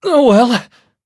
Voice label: hit, en; Cookie Run